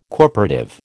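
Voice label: "corporative", American English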